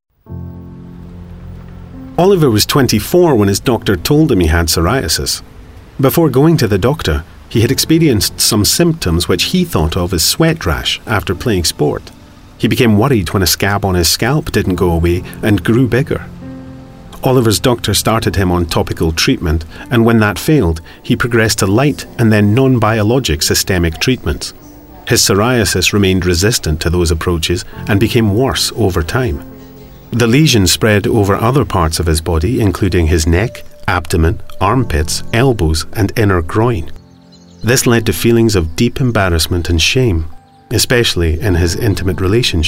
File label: Documentary Narration